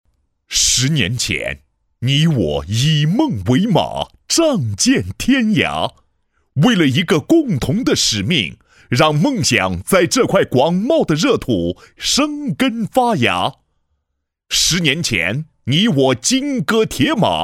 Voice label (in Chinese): 男 专题 开场短片 激情有力; 十年前